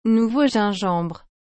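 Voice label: "nouveau gingembre", French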